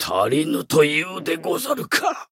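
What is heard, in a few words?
retire, Japanese; cyrus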